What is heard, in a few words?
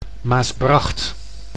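"Maasbracht", Dutch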